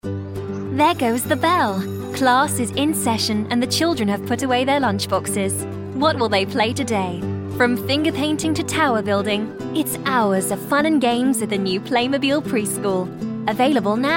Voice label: woman british

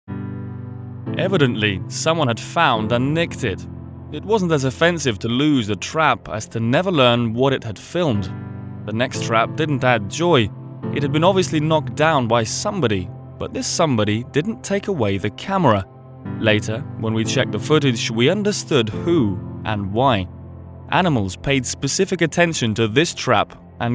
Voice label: man british

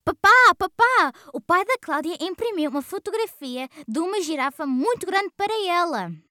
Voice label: portuguese

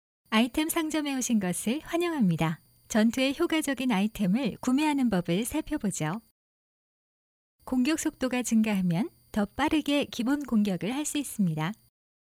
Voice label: Korean

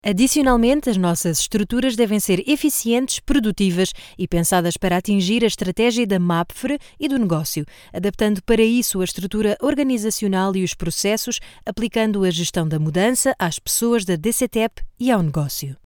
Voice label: Portuguese Female

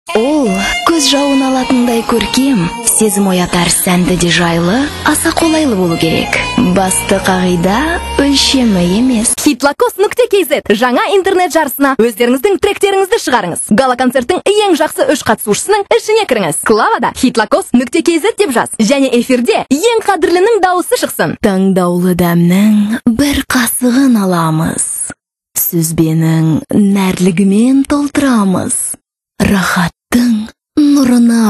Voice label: FEMALE TXT KZ

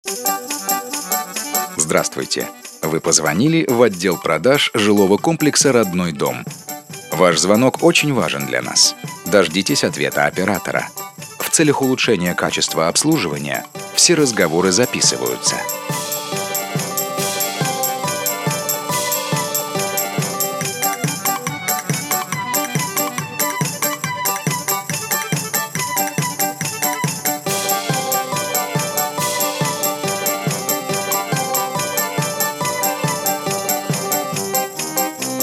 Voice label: ivr

